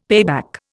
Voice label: "payback", Indian; Dictionary

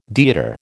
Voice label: "deodar", American

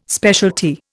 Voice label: "specialty", Indian

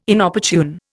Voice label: "Inopportune", Indian

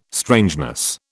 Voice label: "strangeness", British

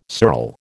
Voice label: "sural", American

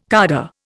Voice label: "cadre", Indian

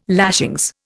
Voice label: "lashings", Indian

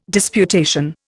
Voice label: "disputation", Indian

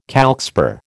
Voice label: "calcspar", American

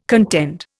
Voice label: "contend", Indian

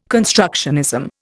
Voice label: "constructionism", Indian

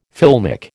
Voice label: "filmic", American

